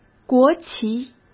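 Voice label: "国旗", Mandarin Chinese; hsk; chinese-flashcards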